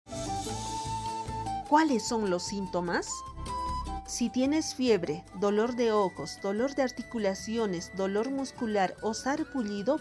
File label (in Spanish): castellano pista andina sintomas del dengue, spot de radio; sospecha o confirmacion de contagio